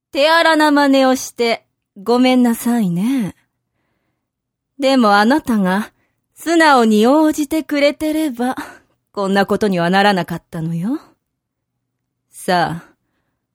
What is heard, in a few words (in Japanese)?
zyosei